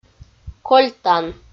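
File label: "кольтан", Komi, female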